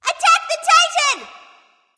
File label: vox attack m; smite; cupid